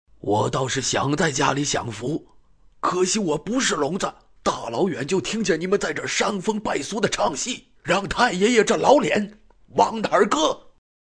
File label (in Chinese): 男 角色模仿 老人